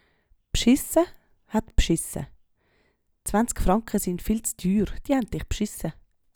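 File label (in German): bschiisse, Swiss German; Wortschatz Kapitel 8; Kapitel 8 Hauptwortschatz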